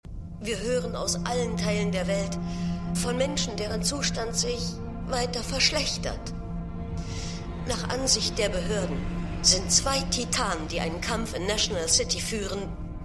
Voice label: Nachrichtensprecherin; Supergirl

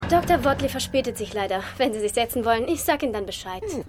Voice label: Empfangsdame, Stimmproben; Seinfeld

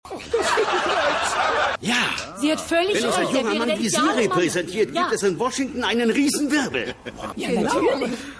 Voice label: Senioren

